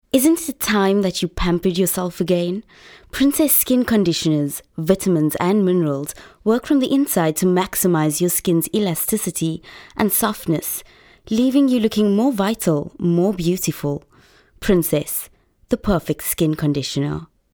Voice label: soft sell